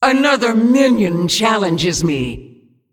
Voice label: Female Demon ERROR TAUNT

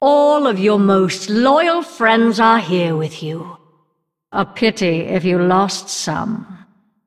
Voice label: Female Undead; Anduin; Idle

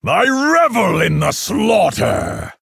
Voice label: Male Orc Threaten

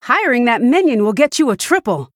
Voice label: Female Human Triple; Bacon Shop Bob

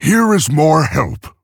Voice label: Male Orc; Thrall; Jaina; Thrall Help

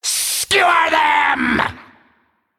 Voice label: Male Naga Attack

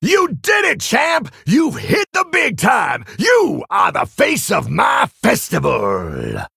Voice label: Male Tauren Victory

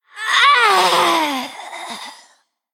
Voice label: Female Undead Death

